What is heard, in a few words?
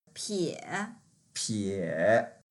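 "pie3", Mandarin Chinese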